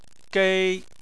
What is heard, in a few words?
"gei1", Chinese